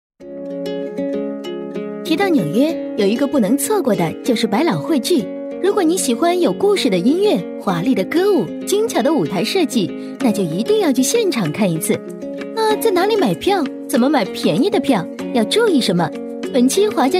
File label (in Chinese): 女 MG风格; 华加